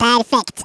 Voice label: "perfect", Norwegian; Worms Armageddon

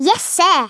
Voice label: "yes sir", Scouser

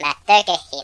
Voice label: "dragon punch", Finnish; Worms Armageddon

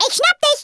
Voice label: ILL GET YOU, Speech, German; Worms Armageddon

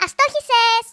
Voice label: "MISSED", Greek; Worms Armageddon

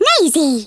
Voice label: "just you wait", Hungarian; Worms Armageddon

